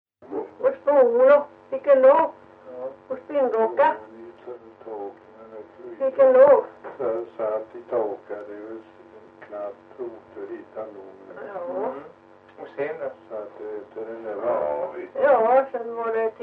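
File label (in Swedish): Ventlinge Öland, dialekter; Folkminnen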